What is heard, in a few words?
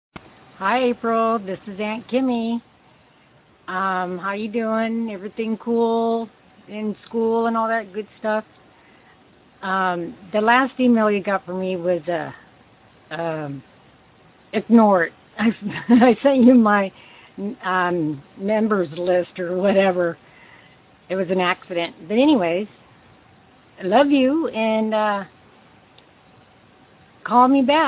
voicemail